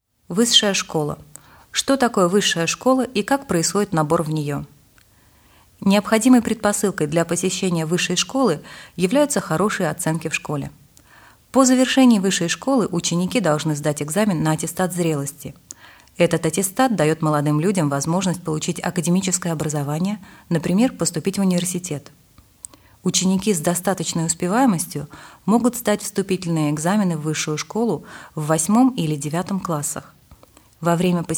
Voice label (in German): mittelschule russisch